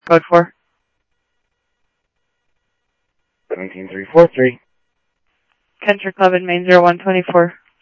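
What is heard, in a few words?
Mesa police department, scanner audio trunk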